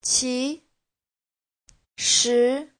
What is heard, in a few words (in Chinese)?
"qi2shi2", 其实